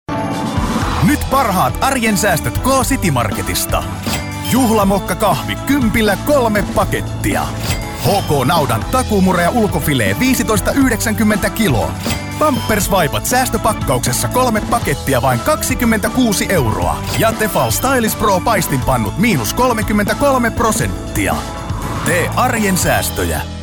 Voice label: male finnish voice over sales